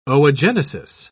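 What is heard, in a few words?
"oogenesis", British English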